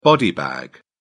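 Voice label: "body bag", British English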